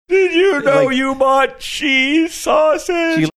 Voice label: saying did you know you bought cheese sausage whiny crying